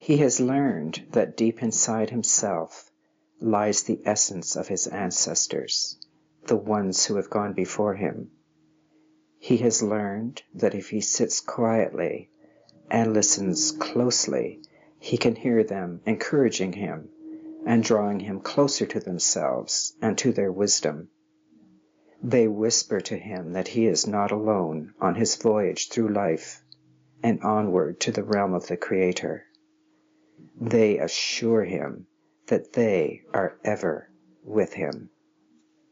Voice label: Ancestral Whispering